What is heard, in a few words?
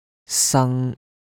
"sang1", cantonese